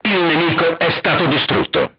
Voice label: target destroyed, crew tanks italian; War Thunder